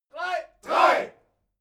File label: military shout german three